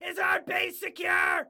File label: Base Secure, Excited Guy